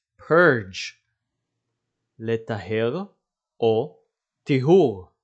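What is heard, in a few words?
"purge" English, "לטהר טיהור" Hebrew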